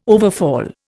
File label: "overfall", Indian